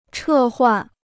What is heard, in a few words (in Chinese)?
"撤换", Chinese